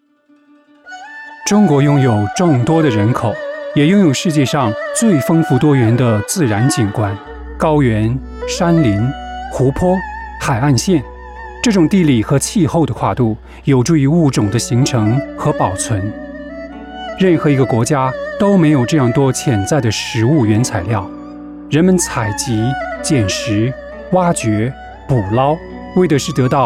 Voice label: Narrative Chinese

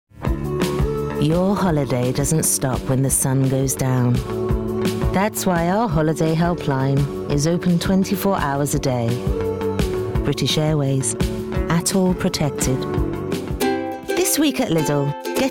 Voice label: Commercial

